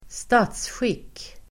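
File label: "statsskick", Swedish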